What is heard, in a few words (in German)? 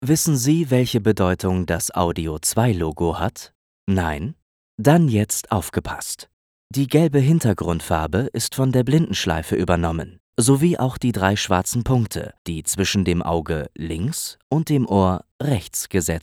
Hochdeutsch, sprechproben